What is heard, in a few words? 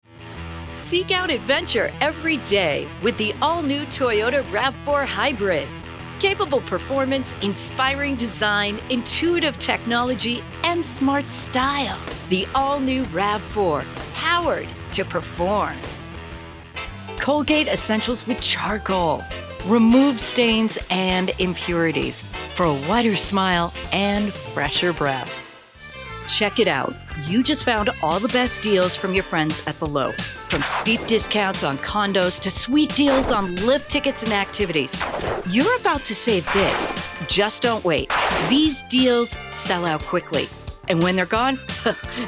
commercial